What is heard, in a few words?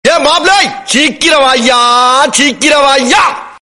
fish comedy; vadivelu